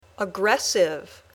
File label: "aggressive", English